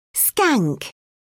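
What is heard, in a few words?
"skank", British English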